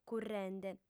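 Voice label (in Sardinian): "currende", female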